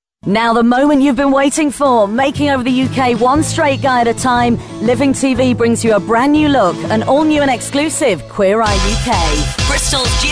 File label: Commercial Montage, Energetic Dynamic